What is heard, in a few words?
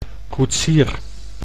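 "koetsier", Dutch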